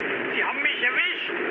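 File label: German aircraft destroyed mood med, speech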